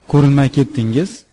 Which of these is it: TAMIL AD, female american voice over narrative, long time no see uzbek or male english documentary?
long time no see uzbek